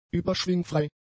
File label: "überschwingfrei", German; adjectives